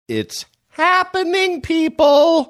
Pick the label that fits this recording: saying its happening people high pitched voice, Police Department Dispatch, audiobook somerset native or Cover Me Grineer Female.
saying its happening people high pitched voice